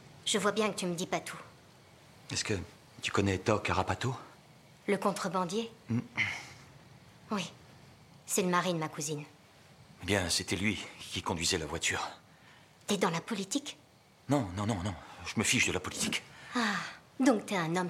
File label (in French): Voix femme 20 ans film; Luisa; Jusqu'a ce que la vie nous separe